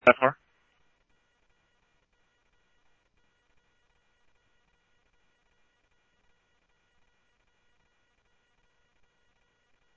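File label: police department, scanner audio trunk; H-Scottsdale; SCTSDLE PD - PD2 ScottsdalePolice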